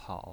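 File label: "pao" third tone, pinyin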